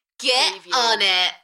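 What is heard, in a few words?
British female Get on it Enthusiastic; beanstalk audio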